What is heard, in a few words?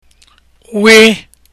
w letter, igbo